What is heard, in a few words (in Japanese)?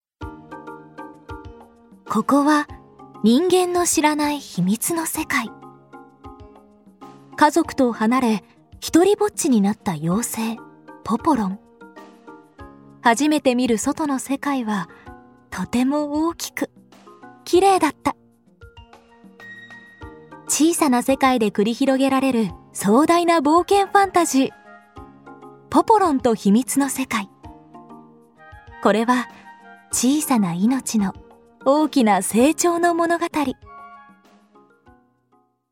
ナレーション